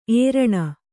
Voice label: "ಏರಣ", kannada